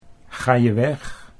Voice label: "ga je weg", Dutch

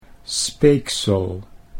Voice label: "speeksel", Dutch

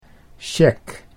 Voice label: "cheque", Dutch

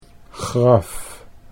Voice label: "graf", Dutch

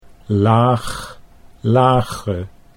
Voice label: "laag lage", Dutch

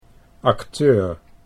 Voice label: "acteur", Dutch

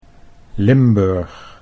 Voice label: "Limburg", Dutch

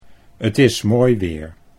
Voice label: "t is mooi weer" Dutch

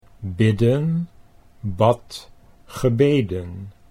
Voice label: bidden bad gebeden, Dutch

